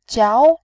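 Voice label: "jiao", Mandarin, second tone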